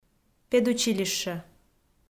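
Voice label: "педучилище", Komi, female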